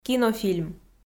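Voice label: "кинофильм", Komi, female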